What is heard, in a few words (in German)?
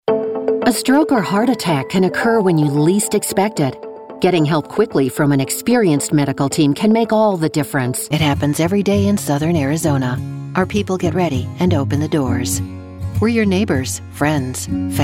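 English US Variabel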